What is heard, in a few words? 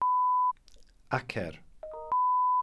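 "acer", Welsh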